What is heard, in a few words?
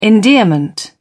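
"endearment", British English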